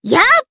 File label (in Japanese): Jump, jp; Miruru; Kings Raid